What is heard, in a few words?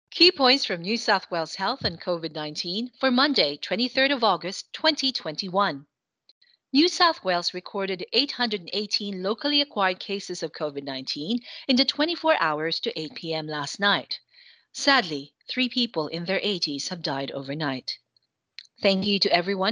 english